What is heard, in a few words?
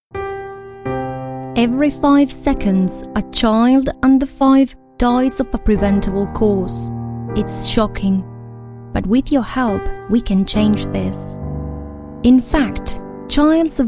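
Spanish English, female, charity; accent of spain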